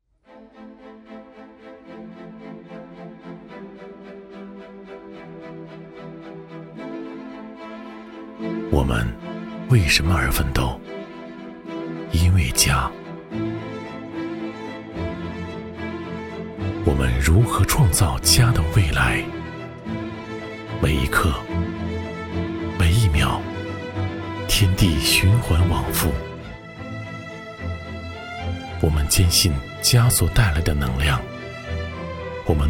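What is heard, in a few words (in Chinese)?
男 宣传片, 企业专题 普通话; 中国移动智慧家庭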